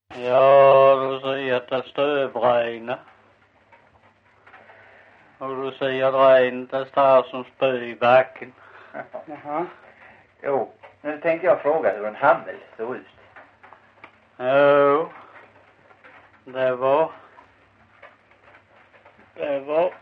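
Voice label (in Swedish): Visseltofta Skåne, svenska sagor dialekter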